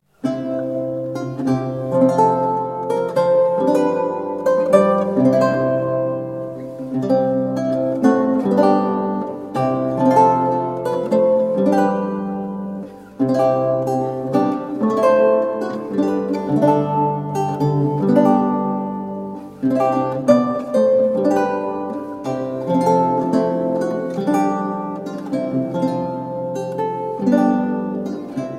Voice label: Lacrimae spoken, Lute music; Tarleton's Resurrection; John Dowland; Lute songs